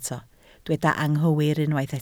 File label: "anghywir", Welsh